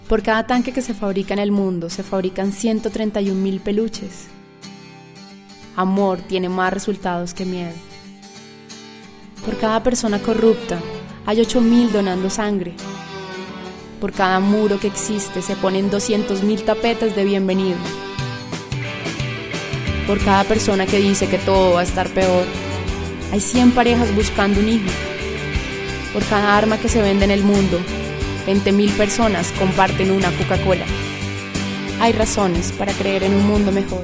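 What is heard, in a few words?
Latin American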